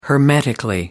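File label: "hermetically", British English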